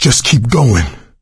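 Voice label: emphatic go quiet, voice, male; Left 4 Dead 2; coach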